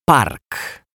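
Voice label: "park", Polish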